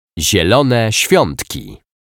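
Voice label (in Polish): "Zielone swiatki", Polish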